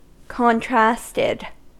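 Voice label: "contrasted", US English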